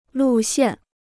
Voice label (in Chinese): "路线", Chinese